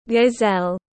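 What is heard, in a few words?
"Gazelle", English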